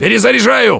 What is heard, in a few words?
reloading, radio